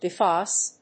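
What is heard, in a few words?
"BIPHASE", English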